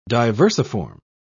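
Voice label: "diversiform", British English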